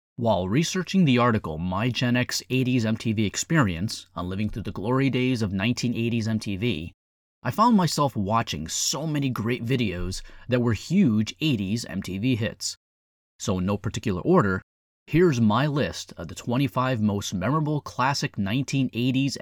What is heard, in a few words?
Voiceover; 25 Most Memorable Classic 80s MTV Videos